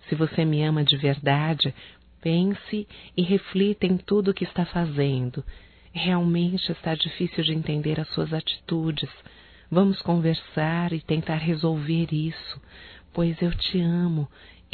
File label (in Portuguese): ESTOU MAGOADA (F); Românticas